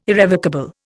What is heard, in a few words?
"irrevocable", Indian